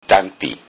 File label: thanks, low saxon